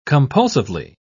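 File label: "compulsively", English, British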